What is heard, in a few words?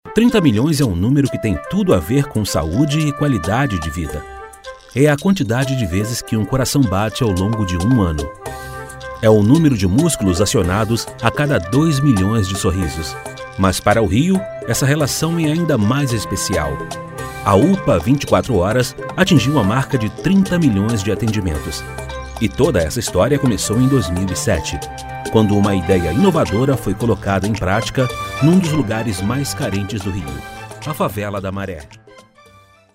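Brazilian Portuguese, male, explainer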